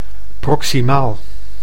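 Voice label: "proximaal", Dutch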